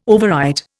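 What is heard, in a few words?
"overwrite", Indian English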